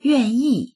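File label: "yuan4yi4", Mandarin Chinese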